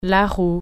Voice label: wheel, french